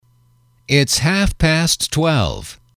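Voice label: its half past twelve, English, male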